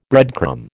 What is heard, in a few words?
"breadcrumb", American